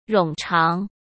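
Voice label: "冗长", Mandarin Chinese; hsk; chinese-flashcards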